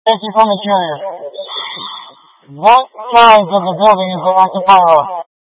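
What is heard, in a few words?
Incident Tactical, scanner audio recording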